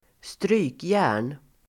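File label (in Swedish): "strykjärn", Swedish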